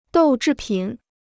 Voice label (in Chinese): "豆制品", Chinese